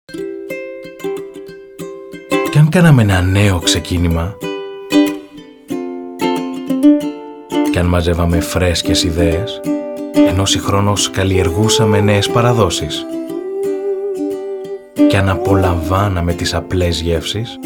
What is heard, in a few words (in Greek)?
greek commercial; professional greek and french speaking actor; Greece